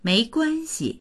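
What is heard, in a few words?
"mei2guan1xi", Mandarin Chinese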